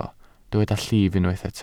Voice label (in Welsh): "llif", Welsh